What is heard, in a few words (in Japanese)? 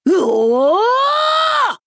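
「うおぉぉぉー！」, 男の子 王道系少年主人公 戦闘ボイス 攻撃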